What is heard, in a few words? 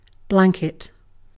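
"blanket", British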